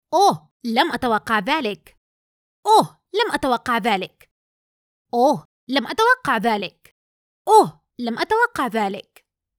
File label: Allien Wow; Gaming